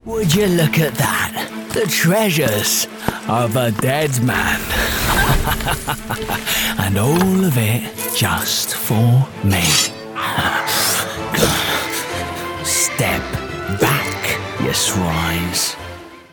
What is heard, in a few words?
Pirate Thief, French Voice Actor